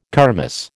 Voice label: "kermis", American